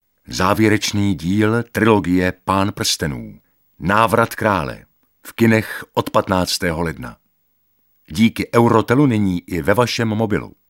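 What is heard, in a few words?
Male Czech commercial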